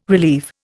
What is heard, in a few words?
"relieve", Indian